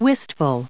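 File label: "wistful", US English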